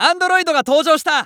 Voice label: Announce New Boss Appear, JPN; Eternal Return